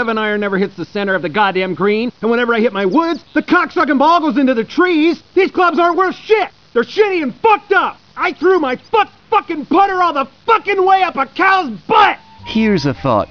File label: golf commercial